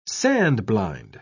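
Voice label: "sand-blind", British English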